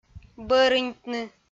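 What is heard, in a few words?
"бӧрыньтны", Komi-Zyrian, female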